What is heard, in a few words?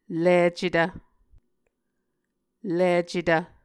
"licetv", Muscogee